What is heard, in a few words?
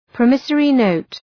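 "promissory note", English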